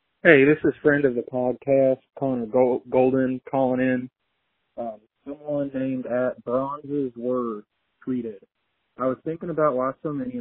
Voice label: Voicemail